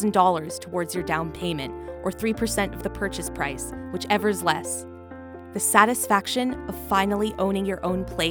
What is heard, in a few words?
female english canadian neutral north american accent bank; bank of america